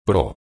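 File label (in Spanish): "pro", Spanish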